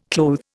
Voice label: "clothe", Indian